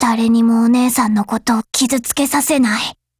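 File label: Japanese; HK21; FEED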